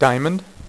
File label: "diamond", Dealer's Speech; PowerPOKER Demo